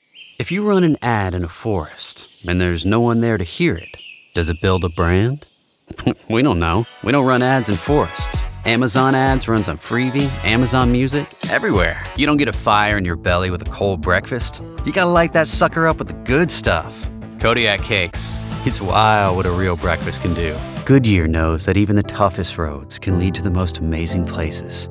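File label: commercial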